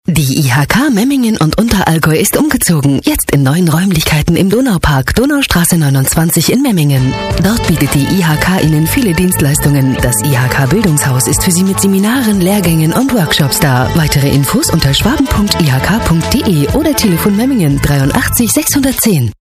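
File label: german female commercial; voice demos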